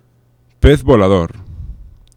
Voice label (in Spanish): "pez volador", fricatives; laboratori fonetica